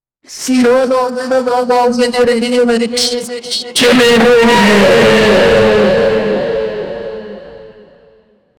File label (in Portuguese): vozes distorcidas; distorted voices